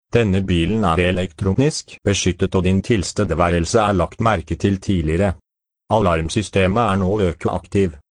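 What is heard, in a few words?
Norwegian, camper alarm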